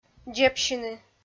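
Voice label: "дзебсьыны", Komi, female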